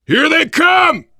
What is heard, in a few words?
mini finale get ready, voice, biker; Left 4 Dead 2; left4dead2 dlc3; survivor